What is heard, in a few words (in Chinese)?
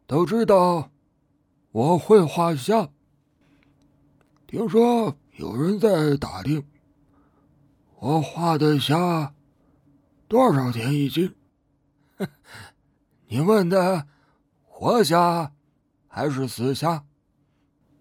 广告类岁老人